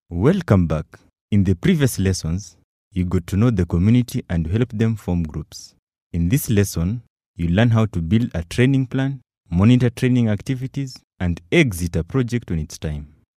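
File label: English Afrikaans Male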